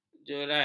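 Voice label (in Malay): "jerai", Malay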